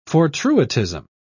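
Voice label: "fortuitism", English, British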